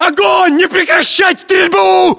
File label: order action boost, ru; localized english